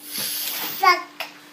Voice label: "fuck", baby; Voice; expletives